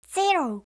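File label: "zero", English; eng suuji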